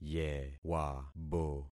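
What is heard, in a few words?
"yewabo", concatenated syllables; Affixing experiment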